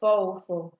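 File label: "fourofour", Nigerian Pidgin, female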